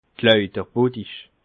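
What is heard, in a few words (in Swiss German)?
"lauterbottich", Alsatian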